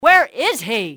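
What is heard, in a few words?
"where is he", english, female; XBOX; enemy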